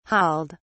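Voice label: "hauled", English, uk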